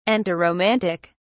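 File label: "antiromantic", British English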